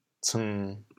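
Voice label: "ceng1", Teochew, chaoyang; 橄榄尻川——坐毋惦; 坐不住、坐立不安; 歇后语; 潮阳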